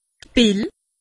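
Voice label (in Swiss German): "pill", Alsatian; medecine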